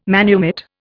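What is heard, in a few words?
"manumit", Indian English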